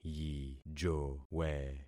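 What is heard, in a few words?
"yijowe", concatenated syllables; Affixing experiment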